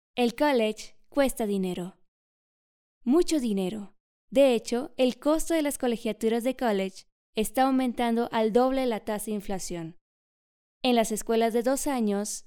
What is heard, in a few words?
foundation scholarship spanish